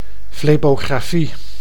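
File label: "flebografie", Dutch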